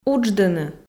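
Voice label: "удждыны", Komi, female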